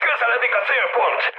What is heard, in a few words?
near waypoint, mood high, speech hun; War Thunder